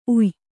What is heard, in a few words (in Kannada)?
"ಉಯಿ", Kannada